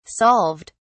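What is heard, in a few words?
"solved", English, British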